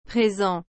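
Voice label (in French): "présent", French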